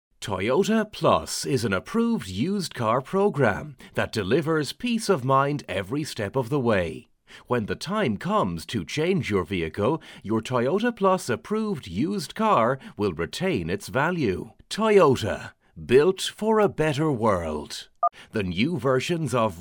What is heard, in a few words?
on hold; toyota